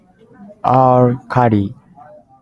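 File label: "alkali", Japanese, male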